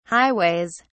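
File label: "highways", British English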